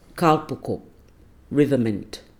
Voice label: "kapel-kup" river mint

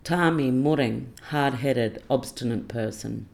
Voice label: "tarmi-murreng" hardheaded obstinate person

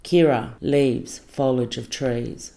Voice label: "kira" leaves foliage of trees

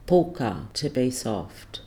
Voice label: "pulka" to be soft

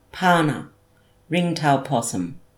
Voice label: "pana" ring tail possum

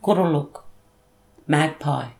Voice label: "kurruluk" magpie

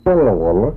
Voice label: "walwalak", wambawamba, slow

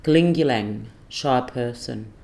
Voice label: "kulingulang" shy person

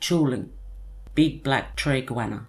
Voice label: "tyuling" tree goanna

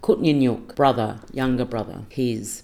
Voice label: "kutninyuk" brother younger brother his

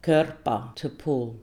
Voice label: "kirrpa" to pull

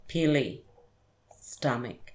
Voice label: "pili" stomach

